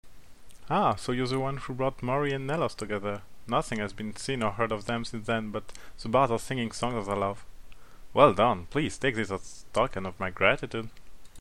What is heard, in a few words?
normal french accent, breton voice auditions